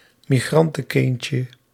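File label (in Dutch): "migrantenkindje", Dutch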